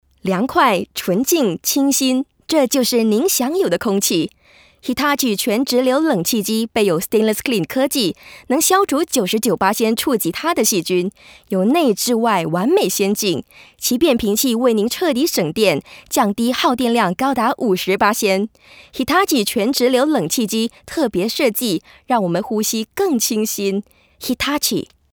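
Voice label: Mand ANCR, Mandarin announcer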